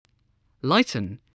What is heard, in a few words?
"lighten", spelling